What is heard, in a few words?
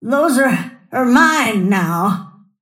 lasthit pain; snapfire